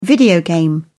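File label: "video game", British English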